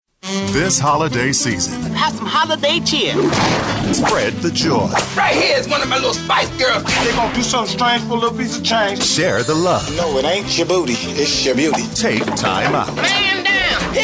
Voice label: american promos